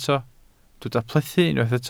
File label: "plethu", Welsh